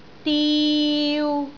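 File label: "diu3", Cantonese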